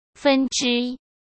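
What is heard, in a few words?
"…分之…", Mandarin Chinese; chinese-flashcards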